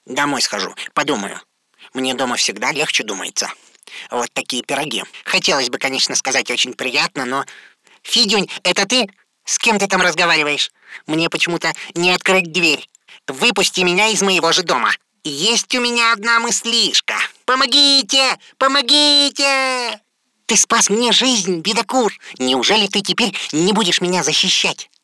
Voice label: russian animation